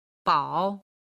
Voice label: "bao3", Chinese